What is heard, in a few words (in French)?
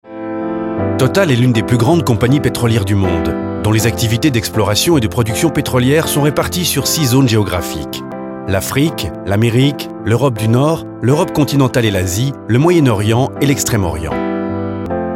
CORPORATE Tonique Dynamique; TOTAL